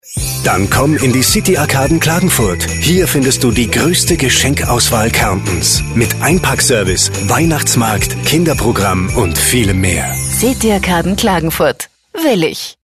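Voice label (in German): werbung, Austrian German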